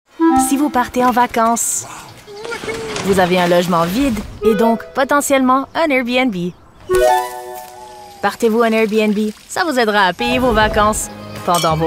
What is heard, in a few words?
French Canadian